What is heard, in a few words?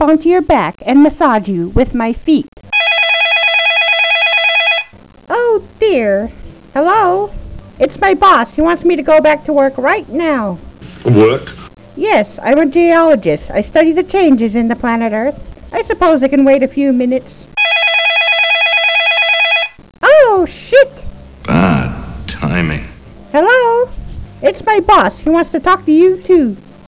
old woman